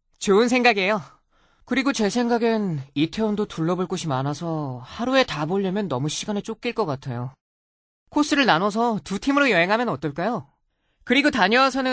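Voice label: Korean, male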